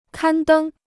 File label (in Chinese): "刊登", Chinese